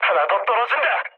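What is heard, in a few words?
Korean player killed enemy mood low; War Thunder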